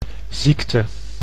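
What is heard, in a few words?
"ziekte", Dutch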